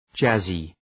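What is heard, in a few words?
"jazzy", English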